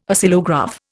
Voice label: "Oscillograph", Indian